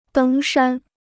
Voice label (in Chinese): "登山", Chinese